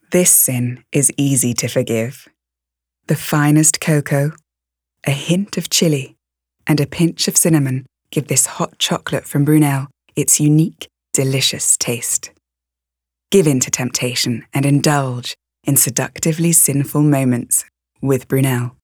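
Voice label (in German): British English, Werbung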